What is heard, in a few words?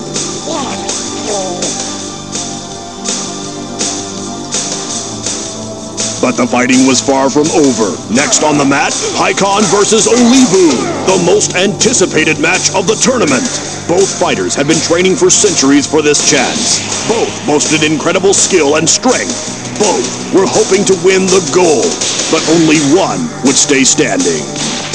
Narrator